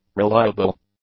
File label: "reliable", English; adjectives